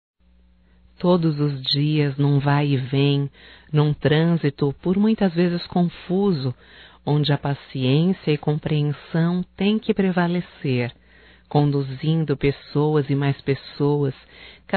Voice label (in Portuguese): HOMENAGEM A MOTORISTA DE ONIBUS (F), Só Voz; Telemensagens; HOMENAGENS